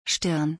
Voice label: Forehead in German

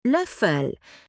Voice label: Spoon in German

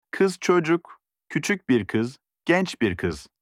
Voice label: daughter in turkish

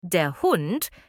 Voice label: dog in german

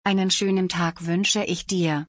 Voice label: Have a nice day in German

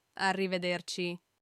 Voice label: Goodbye in Italian

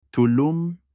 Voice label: jumpsuit in turkish

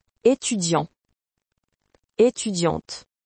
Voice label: student in french

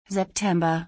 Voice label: September in German

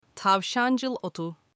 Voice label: angelica in turkish

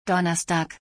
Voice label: Thursday in German

